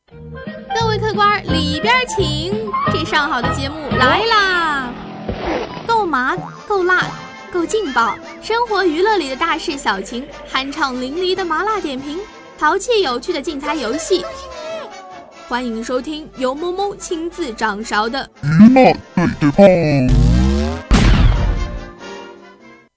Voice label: 综艺片花配音

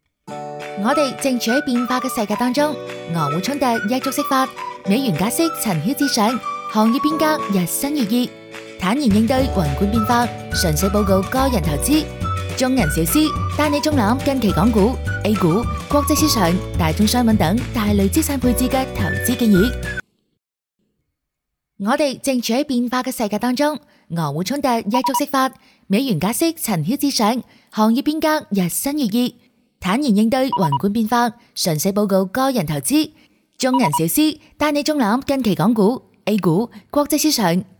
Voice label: 粤语专题解说; 中銀

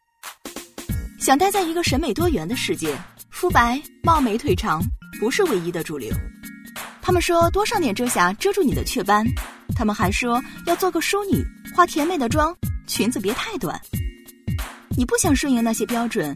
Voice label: 独白人物

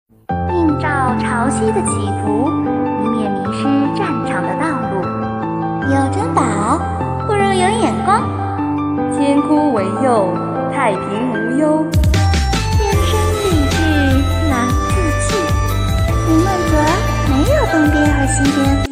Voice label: 试音 音色转换

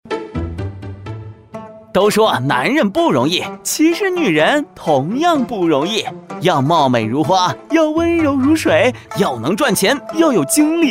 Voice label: MG幽默诙谐

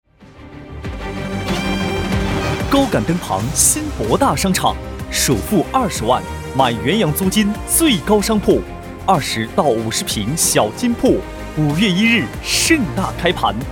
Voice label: 房地产大气

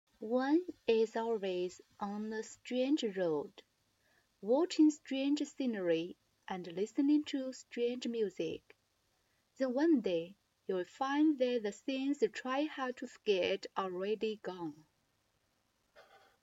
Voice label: 影视美文朗读; 蓝莓之夜

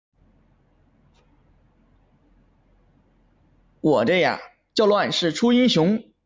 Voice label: 男子

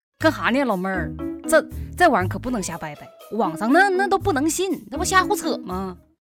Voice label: 东北方言

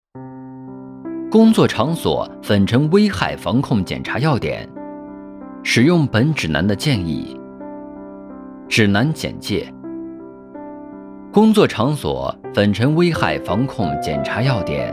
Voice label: 项目展示年轻磁性男

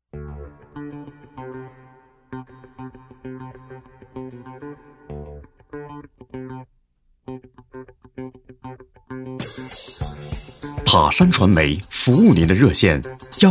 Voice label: 商业广告样音

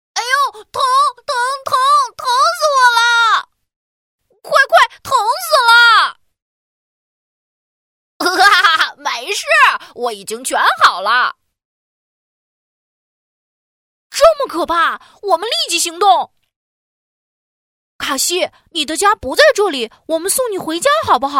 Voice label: 男童

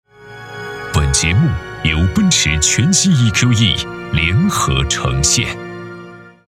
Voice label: 栏目片花; 奔驰冠名

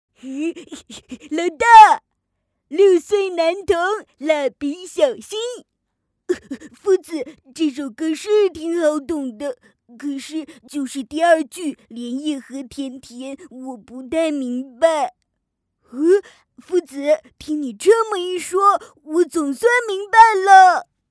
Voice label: 童声 男童; 蜡笔小新

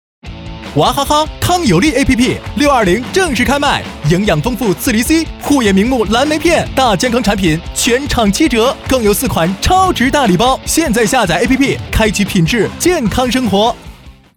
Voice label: 电台广告激情动感

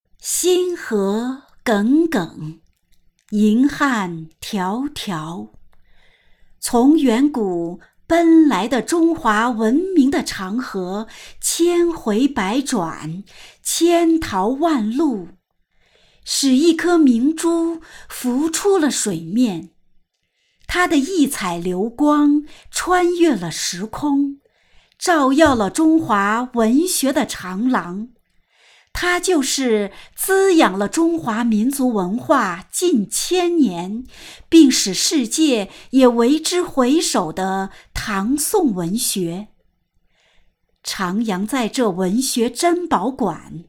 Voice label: 朗诵

